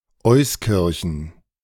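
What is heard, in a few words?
"Euskirchen", German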